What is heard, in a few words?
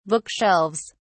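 "bookshelves", British English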